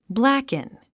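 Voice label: "blacken", English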